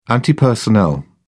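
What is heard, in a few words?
"anti personnel", English, British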